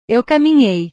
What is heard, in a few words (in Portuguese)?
"eu caminhei", portugues